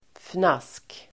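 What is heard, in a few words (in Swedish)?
"fnask", Swedish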